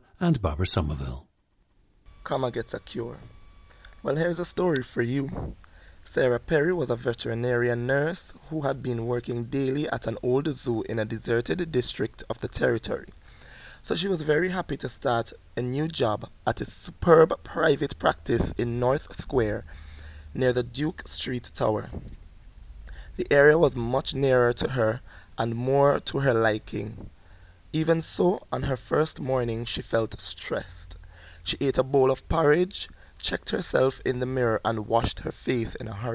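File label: saint vincent and the grenadines, International Dialects of English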